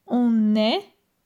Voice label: "on est", francais

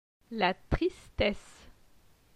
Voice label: "la tristesse", francais

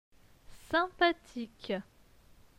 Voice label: "sympathique", francais; phonetique; les consonnes

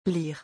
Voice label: "lire", francais; vocabulaire; le lexique des vacances

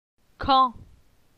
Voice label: "Can", phonetique; la syllabe an

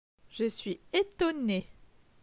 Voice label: "je suis etonne", francais

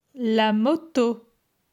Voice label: "la moto", francais

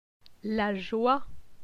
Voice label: "la joie", francais

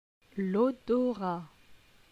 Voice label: "lodorat", francais

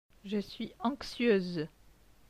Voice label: "je suis anxieuse", francais

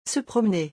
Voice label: "se promener", francais; vocabulaire; le lexique des vacances

